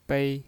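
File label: "bei", Mandarin Chinese, first tone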